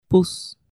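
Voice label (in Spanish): "pus", Spanish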